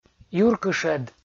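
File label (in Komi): "юркышӧд" Komi, male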